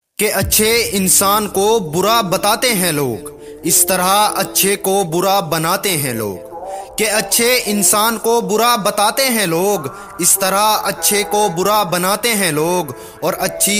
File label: Bad Boy Attitude Shayari Ringtone